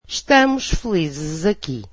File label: portuguese we are happy here